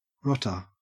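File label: "rotter", Australian English; pronunciations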